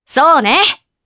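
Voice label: Vox Aff Japanese; Naila; kingsraid; jp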